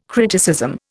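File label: "criticism", Indian English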